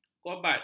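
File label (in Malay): "kobalt", Malay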